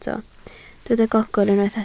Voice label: "gogr", Welsh